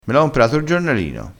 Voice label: "e certo", crespinese

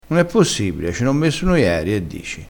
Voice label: "che dici", crespinese

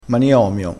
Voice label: "maniomio", crespinese

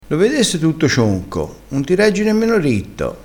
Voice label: "cionco", crespinese

